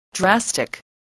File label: "drastic", spelling